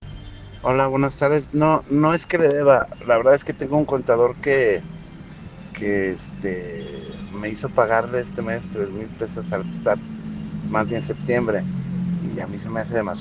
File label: whatsapp ptt